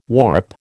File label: "warp", American